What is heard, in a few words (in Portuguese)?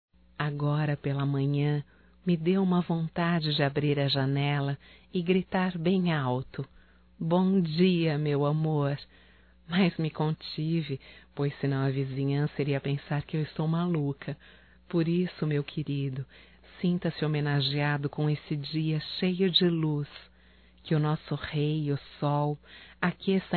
BOM DIA ROMâNTICO, fem; Bom dia, tarde e noite; Telemensagens 2017